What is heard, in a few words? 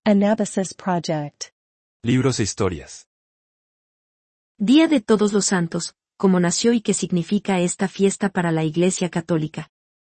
voiceover; como nacio y que significa esta fiesta para la iglesia catolica